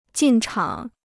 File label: "进场", Chinese